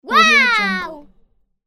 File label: kid voice saying wow